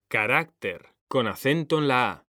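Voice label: "CARÁCTER", Spanish, male; mekanta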